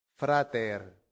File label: "frater", latin; santillana